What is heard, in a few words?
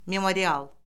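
"memorial", Azerbaijani; Orfoqrafiya Lüğəti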